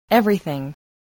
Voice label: "everything", voice, english

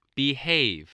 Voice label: "behave", English

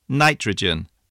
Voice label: "nitrogen", voice

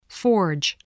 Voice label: "forge", English voice, spelling

